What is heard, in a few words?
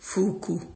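"fuku", Nigerian Pidgin, male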